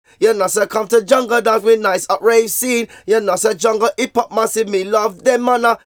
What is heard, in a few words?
Vocals; Jungle Frenzy 1